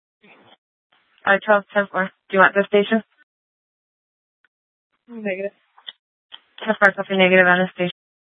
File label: Sheriff, scanner